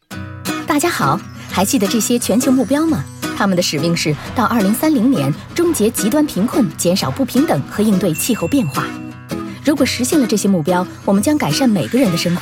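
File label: female, narration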